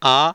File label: "a", vowel